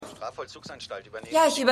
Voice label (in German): Telefonstimme; Shameless